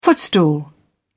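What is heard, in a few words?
"footstool", en, uk British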